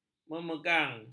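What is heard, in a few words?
"memegang", Malay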